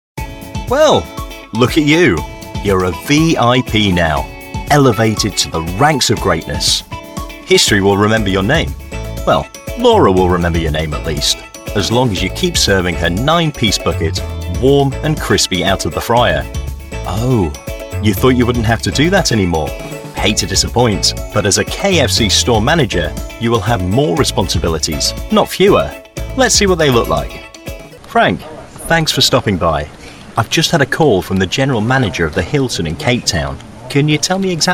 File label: E-LEARNING voiceover